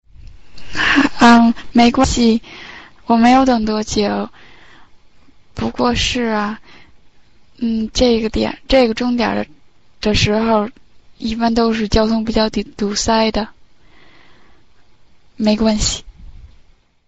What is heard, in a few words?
Chinese Conversation